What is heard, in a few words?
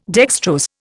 "dextrose", Indian